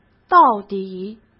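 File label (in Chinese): "到底", Mandarin Chinese; hsk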